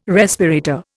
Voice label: "respirator", Indian

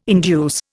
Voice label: "induce", Indian

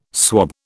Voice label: "swob", British

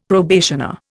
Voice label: "probationer", Indian; Dictionary

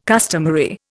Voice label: "customary", Indian

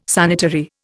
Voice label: "sanitary", Indian

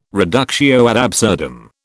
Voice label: "reductio ad absurdum", British

